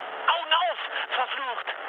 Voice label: player friendly fire, mood med, speech ge; War Thunder

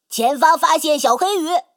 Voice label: Speech Alarms Torpedo Ahead

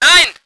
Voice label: voice ger vehicle

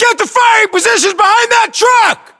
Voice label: order cover truck, US

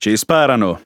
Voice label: Speech Pilots Bomber Under Attack, IT; World Of Warships

